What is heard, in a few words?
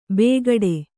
"ಬೇಗಡೆ", Kannada; bēgaḍe; noun; an ostentatious display.